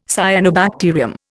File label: "cyanobacterium", Indian